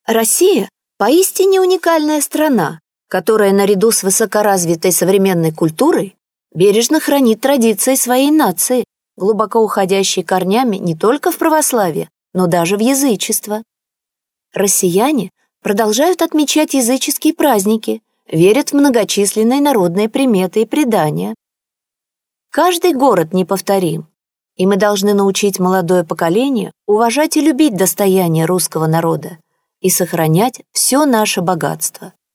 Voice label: Female Russian Other Projects